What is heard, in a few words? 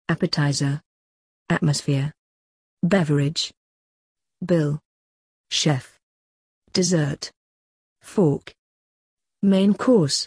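British; restaurants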